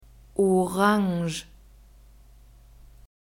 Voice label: "Orange", German

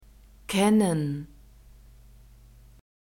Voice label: "kennen", german

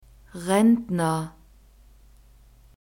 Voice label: "Rentner", German; masculine

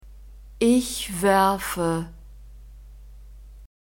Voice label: "ich werfe", German; verbs present tense irregular

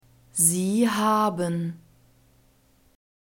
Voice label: "Sie haben", German

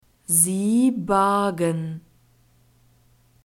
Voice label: "sie bargen", German; verbs past tense irregular

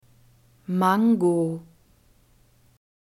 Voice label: "mango", german; fruits

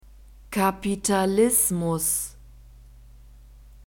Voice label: "kapitalismus", german; masculine

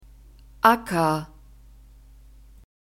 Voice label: "Acker", German; phonetic script grouped consonants

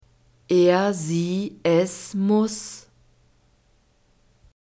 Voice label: "er sie es muss", German

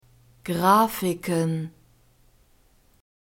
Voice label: "grafiken", German

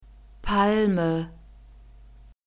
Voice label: "Palme", German; nouns gender feminine

